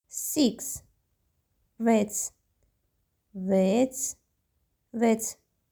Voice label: 6 vets, armenian